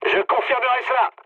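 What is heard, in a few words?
French player killed enemy mood med; War Thunder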